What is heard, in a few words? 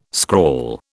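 "scrawl", British English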